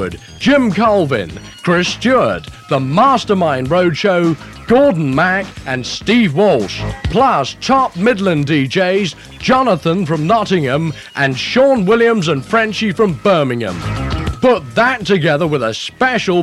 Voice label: Promotions Radio Advert; Horizon Radio Adverts 1981 to 1985